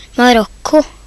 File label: "moroco", nb; geography; gcompris